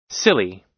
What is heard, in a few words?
"silly", us